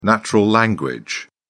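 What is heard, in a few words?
"natural language", British English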